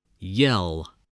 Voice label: "yell", spelling